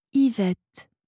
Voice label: "ivette", franzoesische maedchennamen

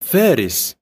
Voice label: "faris", arabische jungennamen

